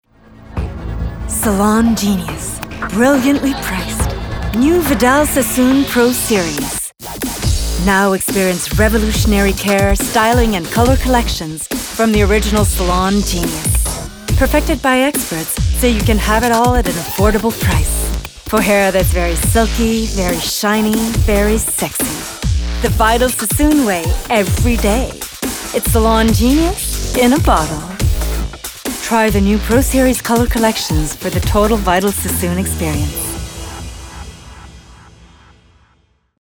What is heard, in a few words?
Eng; Vidal Sassoon